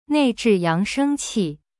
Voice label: "nei4zhi4yang2sheng1qi4", Chinese; cyberchinese